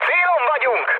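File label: Hungarian near waypoint mood med, speech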